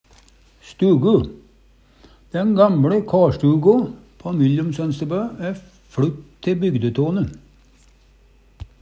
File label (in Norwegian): stugu, Numedalsmål; Substantiv hokjønn